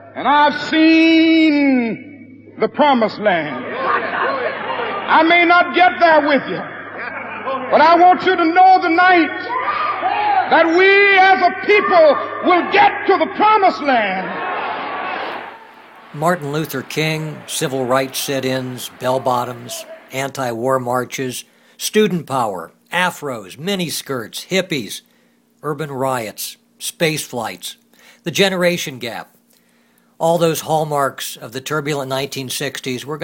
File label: 1960s commercial